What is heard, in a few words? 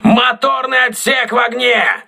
voice message engine room damaged, crew ships russian